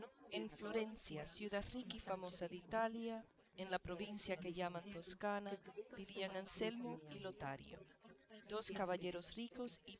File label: female4 inst sim; SiSEC08; SiSEC underdetermined